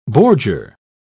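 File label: "bordure", British English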